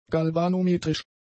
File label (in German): "galvanometrisch", German; adjectives